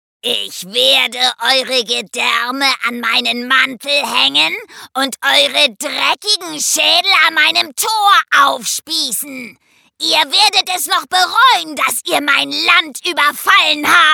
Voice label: demo böser zwerg; LOFT VOICES

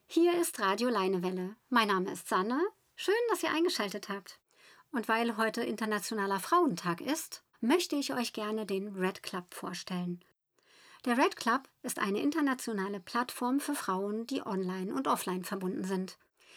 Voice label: Radiomoderation, Comment (Kommentar), Presentation, German

